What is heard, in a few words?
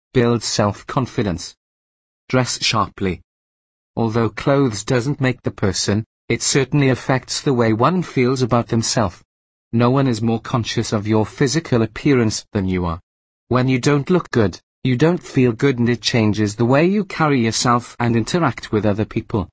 English Male